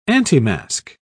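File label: "antimasque", British English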